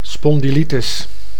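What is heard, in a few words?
"spondylitis", Dutch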